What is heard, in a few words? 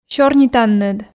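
"сёрнитанныд", Komi, female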